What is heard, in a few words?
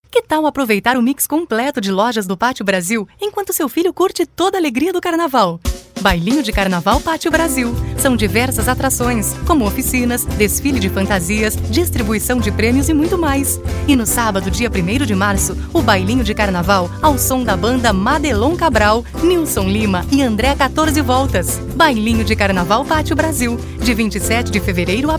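Portuguese Brazilian female Commercial; voice over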